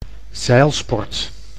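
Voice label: "zeilsport", Dutch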